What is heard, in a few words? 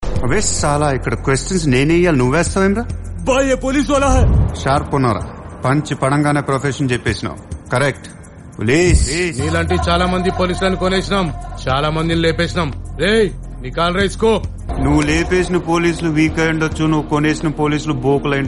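Police Dialogue, Telugu; Dookudu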